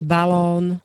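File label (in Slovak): "balón", slovenské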